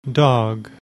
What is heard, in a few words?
"dog", English